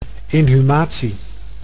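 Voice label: "inhumatie", Dutch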